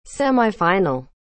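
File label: "semi-final", English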